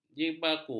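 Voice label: "jibaku", Malay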